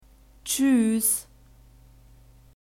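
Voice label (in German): "tschüss", German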